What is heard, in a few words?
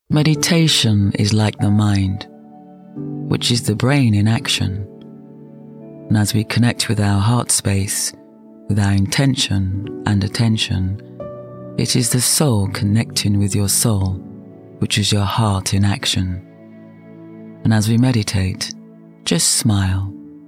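British English, Medical; GoLocalise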